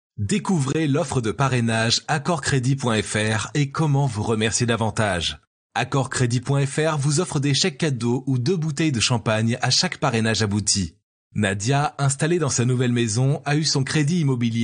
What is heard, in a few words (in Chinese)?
法语男 外语; 小语种